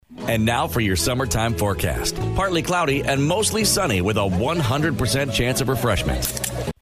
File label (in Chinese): 外籍英男 天气预报 轻快